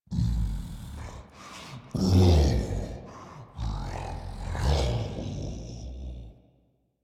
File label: Idle Grineer Ghoul Devourer, en